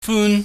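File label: "fun", Cantonese, high falling tone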